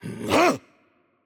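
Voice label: voice over, Japanese; Change Player; bloom minigame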